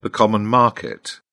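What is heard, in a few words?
"common market", British English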